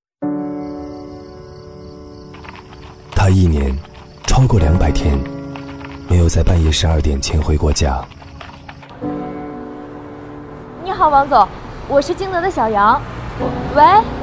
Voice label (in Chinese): 男 专题 旁白; 京德传媒